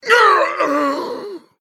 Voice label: Male Human Death